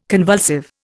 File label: "convulsive", Indian